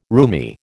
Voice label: "roomy", American

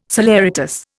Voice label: "saleratus", Indian

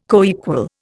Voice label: "coequal", Indian

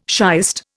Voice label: "shiest", Indian

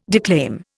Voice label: "declaim", Indian

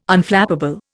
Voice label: "Unflappable", Indian

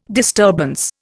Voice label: "disturbance", Indian

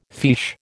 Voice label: "fiche", American

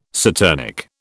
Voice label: "saturnic", British

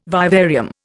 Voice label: "vivarium", Indian

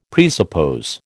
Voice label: "presuppose", American

